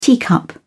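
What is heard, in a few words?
"teacup", British English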